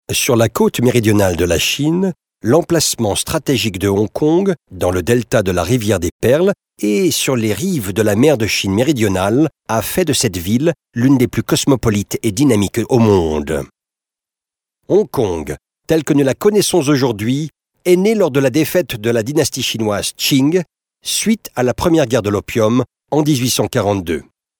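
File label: French male corporate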